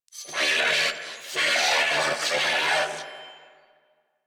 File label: Attack, Female; New War; Narmer Grineer